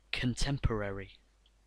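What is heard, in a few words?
"contemporary", US English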